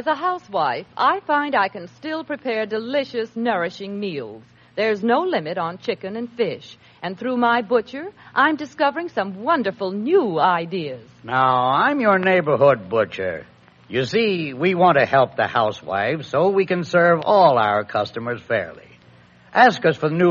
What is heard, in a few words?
psa - share the meat; ww2 promos and commercials